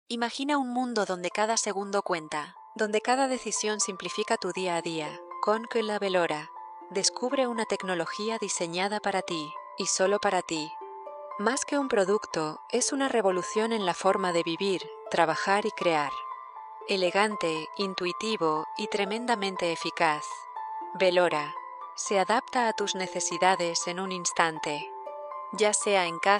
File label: spanish commercial